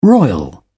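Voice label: "royal", British English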